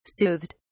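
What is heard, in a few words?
"soothed", British English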